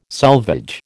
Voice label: "selvage", American